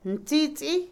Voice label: compañero, Otomí, mujer; INALI

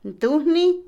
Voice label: pelear, Otomí, mujer; INALI

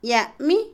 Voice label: excavar, cavar, Otomí, mujer; INALI

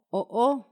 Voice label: fuego, Paipai; Vocabulary; INALI

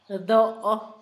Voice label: espiga, Zapoteco, Asunción Ocotlan; INALI; Haspelmath

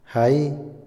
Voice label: suelo, Otomí, hombre; INALI

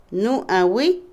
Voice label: nosotros, nosotras(exclusivo dual), Otomí, mujer; Parentesco; Vocabulary; INALI

